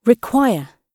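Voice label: "require", British English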